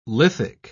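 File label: "lithic", British English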